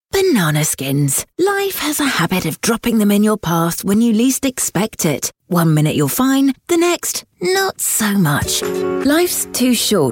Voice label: commercial showreel